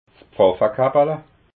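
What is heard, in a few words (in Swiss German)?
"pfaffakappala", Alsatian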